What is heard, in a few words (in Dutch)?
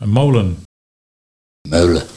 "Meule", Nieuw lekkerlands dialect